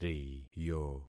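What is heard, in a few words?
"riyo", concatenated syllables; Affixing experiment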